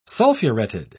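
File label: "sulfuretted", British English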